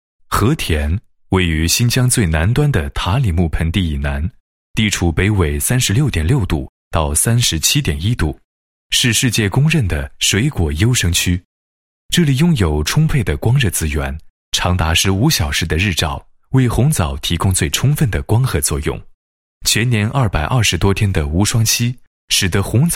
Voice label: 男 产品介绍 解说词; 新疆和田俊枣解说词文案

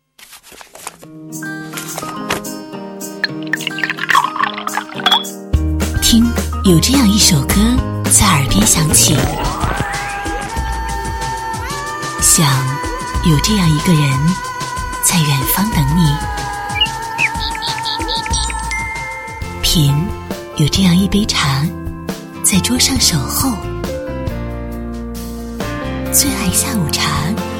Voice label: 女, 片花; 最爱下午茶

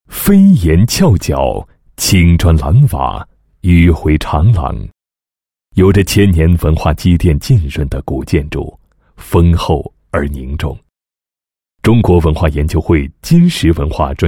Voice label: 男 餐饮企业; 建业大食堂

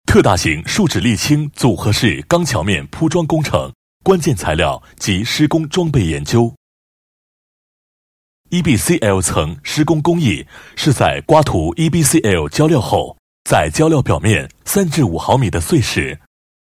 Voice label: 男 解说 集料工厂 施工解说; 长大公中山集料工厂 施工解说

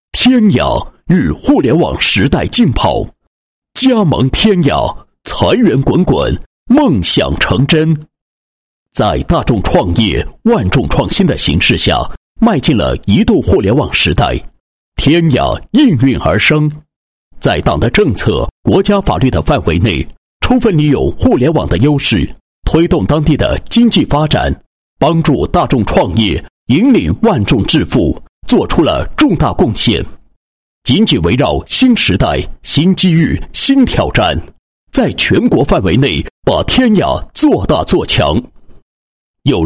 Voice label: 男 企业 宣传介绍; 赢在电商 天雅宣传介绍

